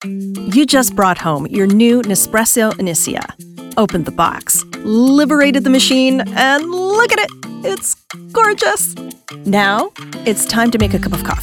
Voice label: Explainer